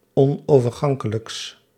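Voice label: "onovergankelijks", Dutch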